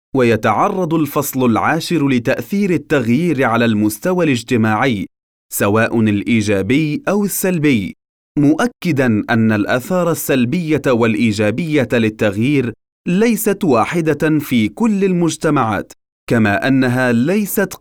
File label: arabic audiobooks